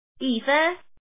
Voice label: Woman, lord